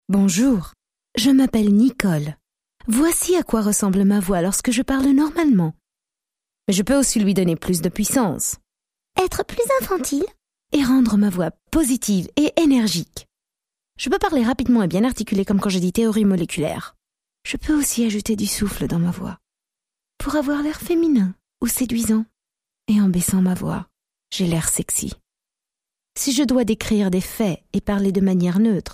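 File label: French, female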